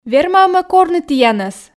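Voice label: верма-ӧ ме корны тіянӧс, Komi, female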